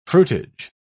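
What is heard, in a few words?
"fruitage", British English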